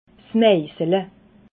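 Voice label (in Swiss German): "meisele", Alsatian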